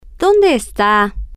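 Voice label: where is are, Spanish, female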